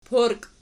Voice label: "porki", Archi

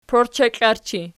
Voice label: "porcheklarchi", Archi

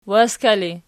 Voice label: waska erg, archi

